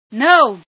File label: "nooo", female